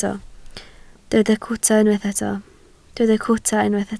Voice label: "cwta", Welsh